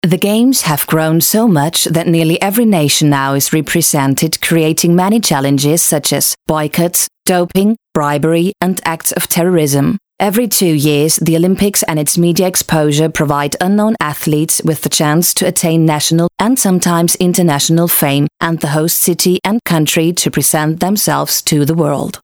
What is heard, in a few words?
Oxford English Sachtext